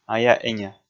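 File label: "ая-эня", Komi, male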